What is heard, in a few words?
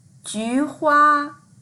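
"ju2hua1", Mandarin Chinese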